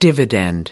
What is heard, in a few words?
dividend, us